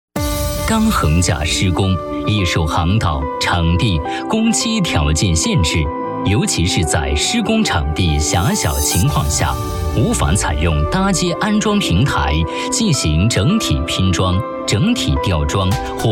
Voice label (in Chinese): 男 专题 分段拼装施工技术